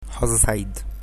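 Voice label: good luck, Egyptian Arabic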